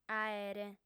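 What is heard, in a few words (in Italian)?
"aere", sarda; fem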